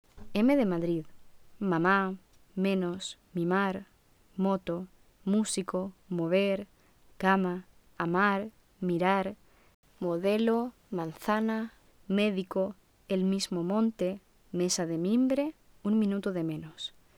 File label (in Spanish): Pronunciacion m; m/ Nasal bilabial sonora